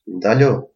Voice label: stop, albanian gheg